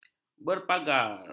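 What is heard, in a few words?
"berpagar", Malay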